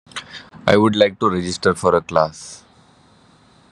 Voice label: voice message